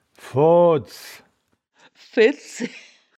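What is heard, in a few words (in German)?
"vodds", Wittlicher Wörterbuch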